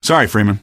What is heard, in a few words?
sorry, male; video game; npc; Source Engine